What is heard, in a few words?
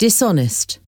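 "dishonest", English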